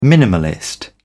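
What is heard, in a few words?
"minimalist", British English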